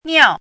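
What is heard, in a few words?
"niào", Mandarin Chinese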